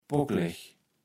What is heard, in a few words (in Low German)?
"pockleg", kaevels platt